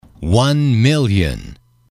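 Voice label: one million, male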